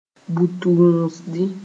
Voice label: "bu-tũusdi", moore